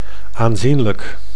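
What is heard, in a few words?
"aanzienlijk", Dutch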